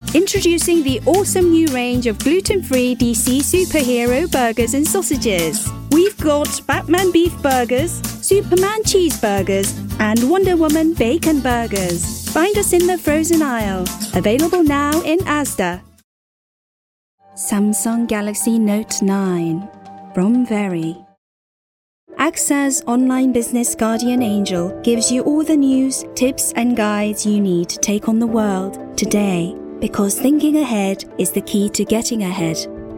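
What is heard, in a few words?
Korean, English accent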